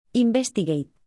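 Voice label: "investigate", English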